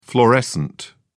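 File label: "fluorescent", British English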